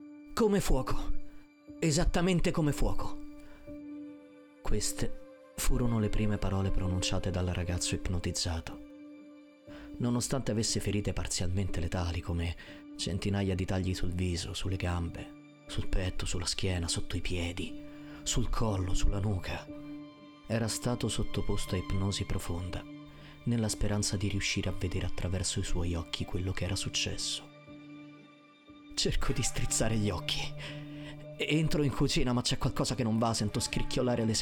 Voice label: VOICE M